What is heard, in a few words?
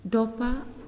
"Skin", Naasioi